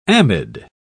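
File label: "amide", English, British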